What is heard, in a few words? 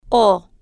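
"o", spanish, slow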